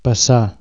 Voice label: "to pass", Catalan

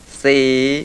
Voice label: mid rising tense

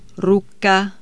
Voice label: hand, Czech

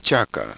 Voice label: palatoalveolar voiceless, quechua

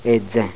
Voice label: laminal dentialveolar, ngwo

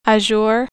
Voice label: "azure", American English; Consonants

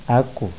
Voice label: high low, ibibio

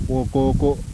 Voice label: velar, yanyuwa

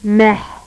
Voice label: voiceless epiglottal fricative final, agul

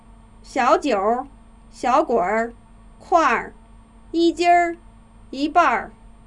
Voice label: mandarin vowels

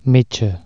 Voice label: "fuse", Catalan

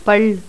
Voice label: voiced retroflex lateral, toda

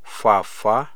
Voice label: falling water of waterfall, amharic

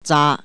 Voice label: to hold, Cantonese

